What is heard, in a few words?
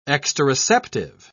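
"exteroceptive", British English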